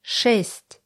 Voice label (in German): russische zahl 20; russische zahl 6; Zahlen auf Russisch